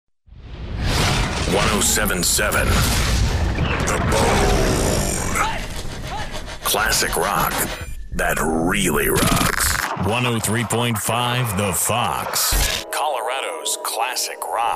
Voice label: american imaging